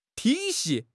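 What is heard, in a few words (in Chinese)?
"体系", Chinese; HSK6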